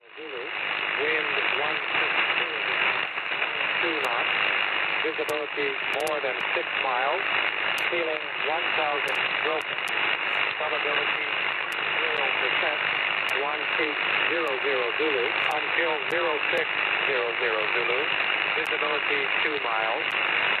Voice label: Gander Volmet 10051 kHz